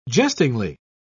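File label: "jestingly", British English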